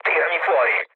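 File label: Italian bailout mood low, speech; War Thunder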